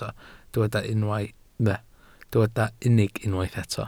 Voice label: "unig", welsh

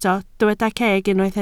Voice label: "ceg", Welsh

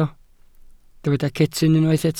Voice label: "cetyn", Welsh

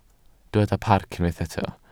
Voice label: "parc", Welsh

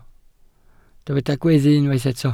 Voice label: "gweddu", Welsh

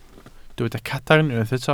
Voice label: "cadarn", Welsh

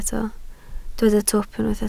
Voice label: "twp", Welsh

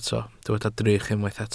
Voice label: "drych", Welsh

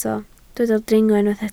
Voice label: "dringo", Welsh

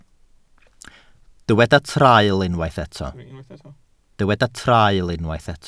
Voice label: "traul", Welsh

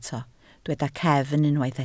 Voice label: "cefn", Welsh

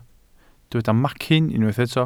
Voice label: "macyn", welsh

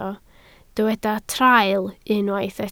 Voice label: "traul", Welsh

